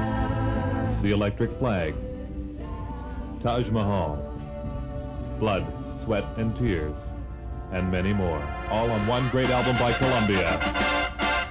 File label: Radio Commercial Heavy Sounds; Columbia Records 1967